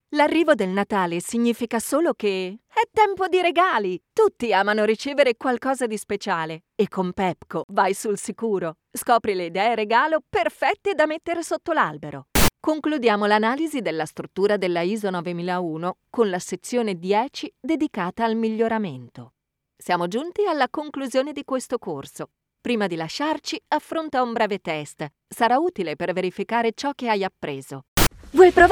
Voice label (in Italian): ITA